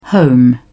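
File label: "home", British English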